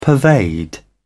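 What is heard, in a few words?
"pervade", British English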